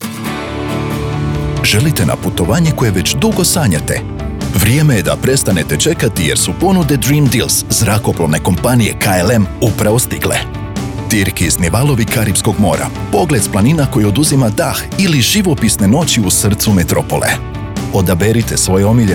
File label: male croatian commercial; klm